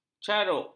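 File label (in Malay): "caruk", Malay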